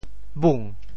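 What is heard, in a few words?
"bung2", Teochew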